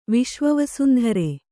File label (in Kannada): "ವಿಶ್ವವಸುಂಧರೆ", Kannada